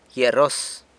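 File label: "gyia rros", San Lucas Quiaviní Zapotec; rose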